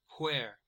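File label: "where" English, US; pronunciations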